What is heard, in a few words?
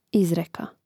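"izreka", Croatian; mreznik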